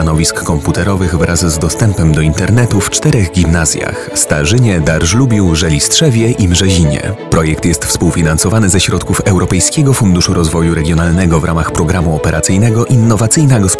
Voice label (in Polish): wykluczenie cyfrowe spot